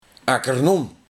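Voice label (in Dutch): "Akkernon", dialect; Beleefde vloek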